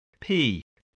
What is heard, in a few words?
"pea", English, voice